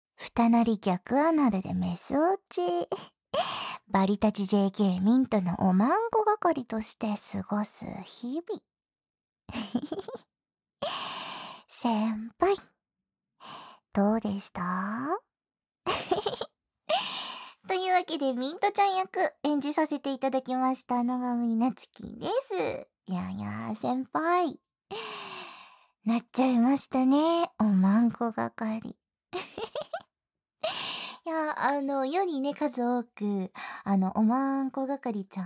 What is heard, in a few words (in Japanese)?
フリートーク